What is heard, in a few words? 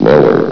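"lower", male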